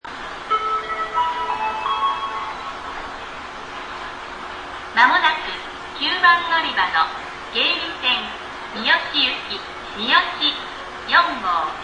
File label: hiroshima hassya, ekihousou; 9番のりば発車放送（急行みよし4号三次行き）; sanyo-line; JR西日本-広島駅